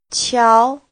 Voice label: Qiao Chinese